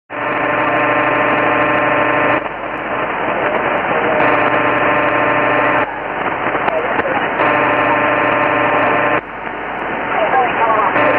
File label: noise canceller ham operator